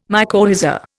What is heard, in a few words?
"mycorrhiza", Indian